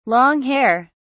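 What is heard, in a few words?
"long-hair", British English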